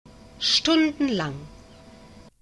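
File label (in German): "stundenlang", German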